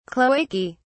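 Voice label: "cloacae", British English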